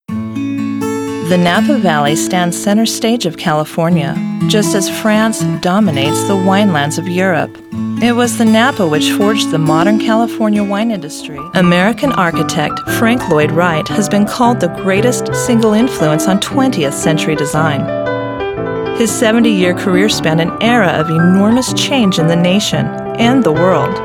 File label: narrative documentaries; mccarty talent agency